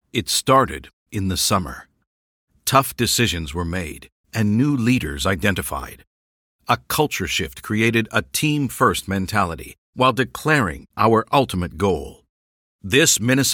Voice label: english canadian commercial